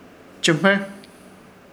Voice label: "Chɨhmer", Ishir Chamacoco; guardar